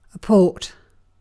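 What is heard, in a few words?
"port", British English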